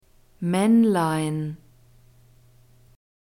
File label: "maennlein", german